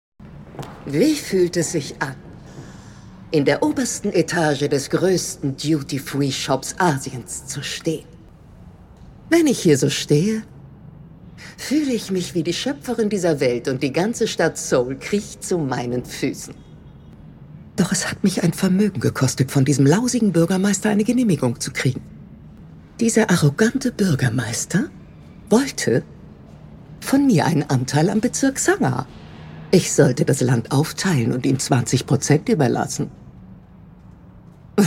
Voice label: Synchron, frauen; Queenmaker

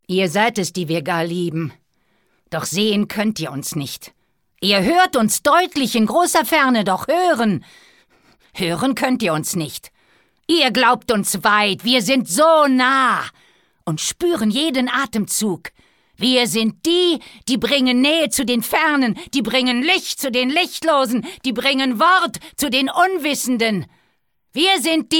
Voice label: Gedicht aggressiv, frauen; sprecher

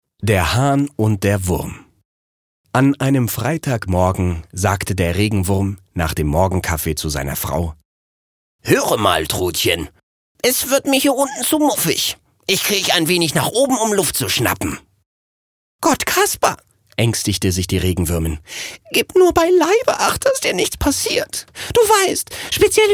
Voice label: Parabel Charge, maenner

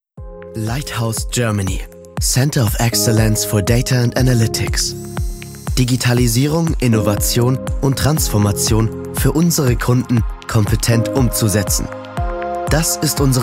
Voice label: Imagefilm, maenner; KPMG Region

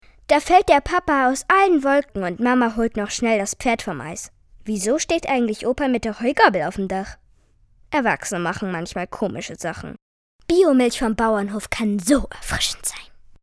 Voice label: maedchen; Biomilch

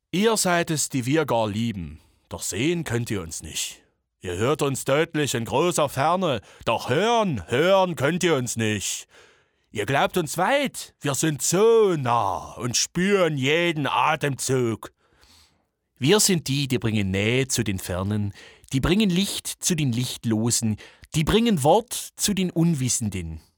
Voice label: saechsisch schwaebisch, maenner

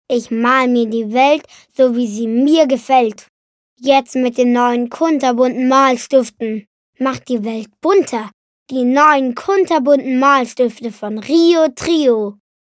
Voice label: Spot, jungen

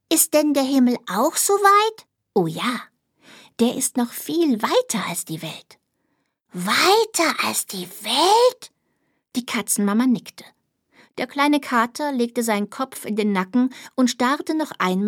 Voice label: Hörbuch; Du Mama Wie weit ist die Welt

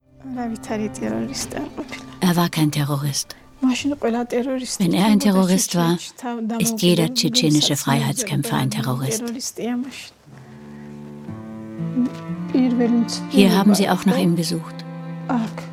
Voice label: Voice Over, frauen; Pulverfass Kaukasus

